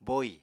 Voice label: "bóì", Apatani

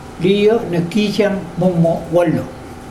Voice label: the woman took the fish from the man, mokilese

